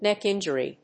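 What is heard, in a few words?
"neck injury", English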